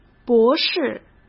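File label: "博士" Mandarin Chinese; hsk; chinese-flashcards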